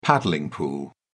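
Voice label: "paddling pool", British English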